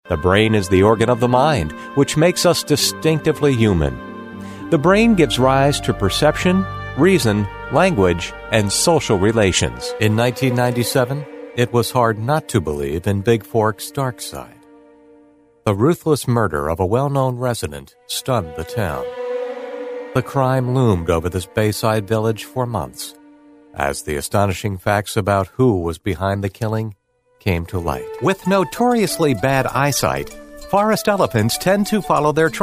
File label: documentary narration